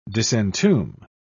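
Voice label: "disentomb", British English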